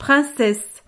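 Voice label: "princesse", French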